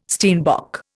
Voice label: "steinbock", Indian

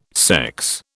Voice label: "sex", British

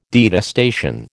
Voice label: "detestation", American

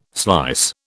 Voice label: "slice", British

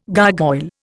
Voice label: "gargoyle", Indian

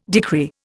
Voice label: "decree", Indian

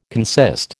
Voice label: "consist", American

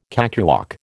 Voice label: "cakewalk", American

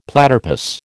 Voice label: "platypus", American

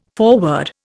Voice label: "foreword", Indian

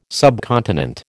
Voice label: "subcontinent", American

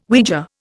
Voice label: "Ouija", Indian

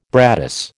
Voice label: "brattice", American

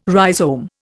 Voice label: "rhizome", Indian

